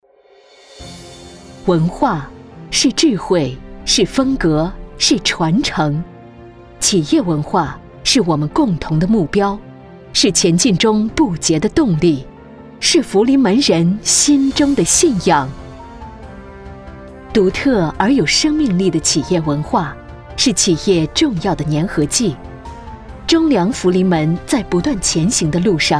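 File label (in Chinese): 宣传片大气沉稳企业文化; 福临门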